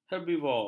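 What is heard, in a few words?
"herbivor", Malay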